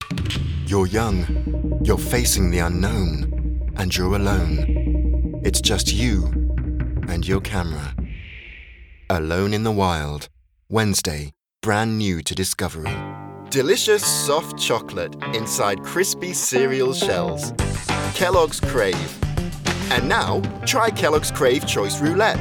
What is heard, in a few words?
man british